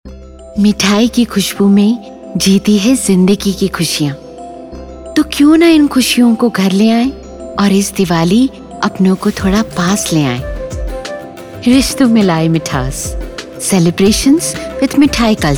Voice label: sweets ad Hindi